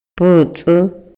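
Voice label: Maya, male; INALI; MayaYuc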